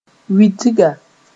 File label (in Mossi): "witga", Moore